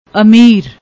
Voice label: "ameer", Punjabi; ਅਮੀਰ